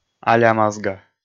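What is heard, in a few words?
"алямазга", Komi, male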